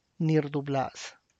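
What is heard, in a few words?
Tlacochahuaya Zapotec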